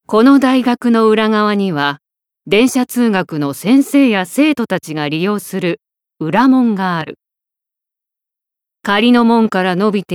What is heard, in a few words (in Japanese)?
ナレーション